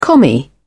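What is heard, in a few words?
"commie", English, British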